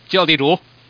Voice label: Man Order, mahjong; mahjong shaanxi